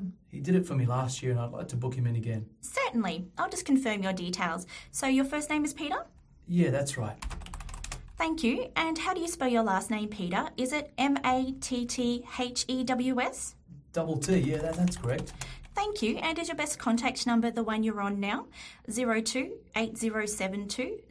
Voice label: phone message answering service call sample; MessageExpress